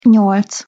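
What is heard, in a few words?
"nyolc", Hungarian pronunciation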